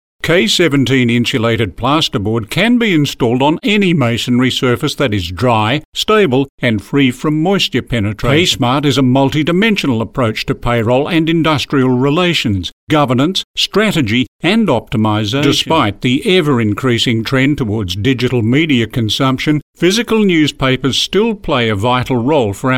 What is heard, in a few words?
Corporate